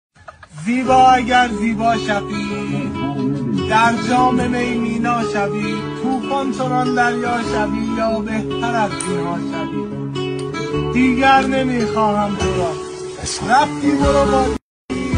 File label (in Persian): دکلمه; دیگر نمیخواهم تو را